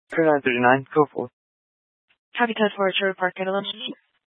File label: Police 1 Dispatch